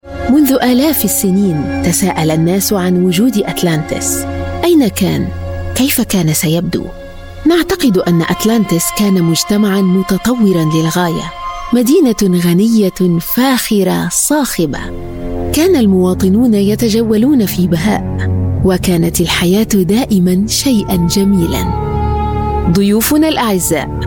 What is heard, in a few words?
arabic voice over female narrator